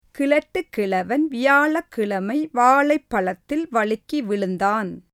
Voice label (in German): tamilisch